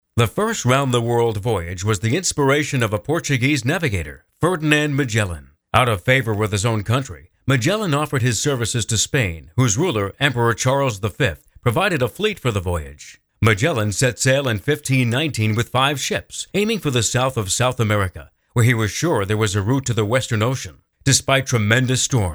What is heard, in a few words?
documentary